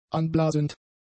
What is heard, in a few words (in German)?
"anblasend", German; adjectives